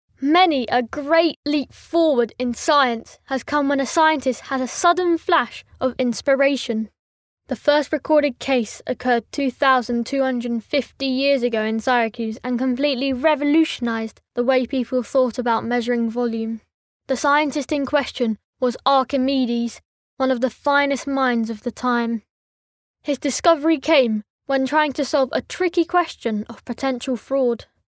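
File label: English British, boy, child voice over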